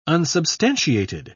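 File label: "unsubstantiated", British English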